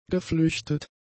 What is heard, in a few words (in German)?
"geflüchtet", German; adjectives